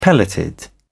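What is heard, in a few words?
"pelleted", English, British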